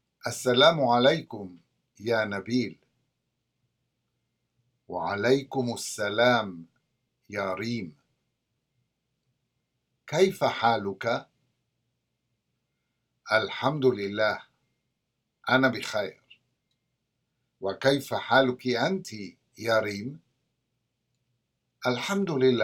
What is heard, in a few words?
Conversation; SALAMAAT